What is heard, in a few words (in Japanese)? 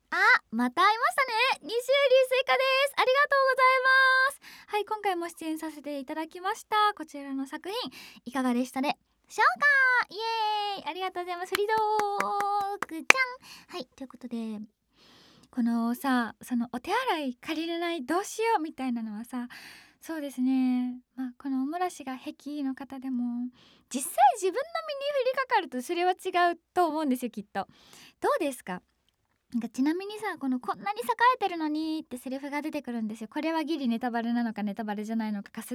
フリートーク 電車, ASMR; 【排泄我慢】快速電車内でお腹を下してしまい…〜ADV式音声作品〜